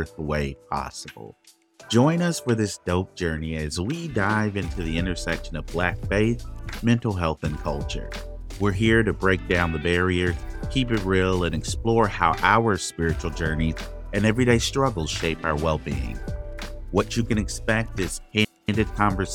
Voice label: Today's Church Announcements